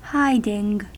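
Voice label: "hiding", US English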